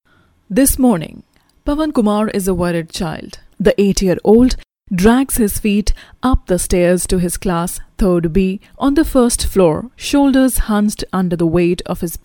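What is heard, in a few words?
Indian English female Documentary; voice over; accent of india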